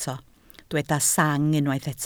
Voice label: "sang", Welsh